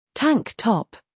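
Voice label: "humor", British; tank top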